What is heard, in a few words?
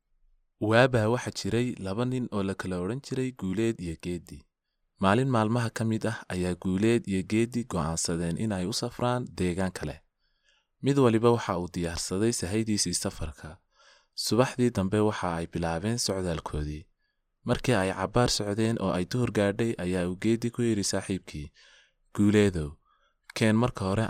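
Somali male voice over, narrative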